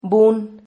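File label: "bun", Spanish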